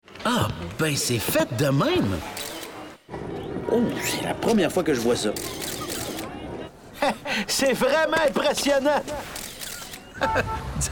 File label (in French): Radio